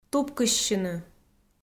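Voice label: "тупкыссьыны", Komi, female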